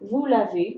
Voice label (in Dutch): laver vous, frans